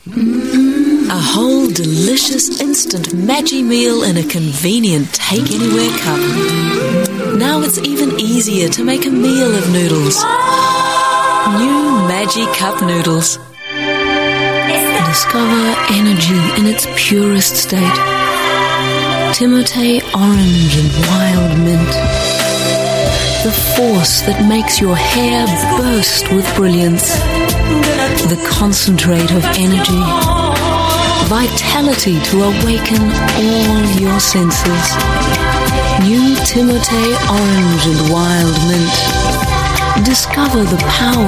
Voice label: NZ English